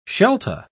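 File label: "shelta", British English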